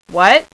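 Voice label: "what", female; Web Clip Empire 150,000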